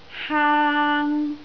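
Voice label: "haang1", Cantonese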